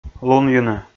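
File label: "лунйыны", Komi, male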